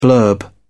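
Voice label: "blurb", British English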